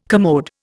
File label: "commode", Indian